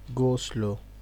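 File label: "go slow", Nigerian Pidgin, male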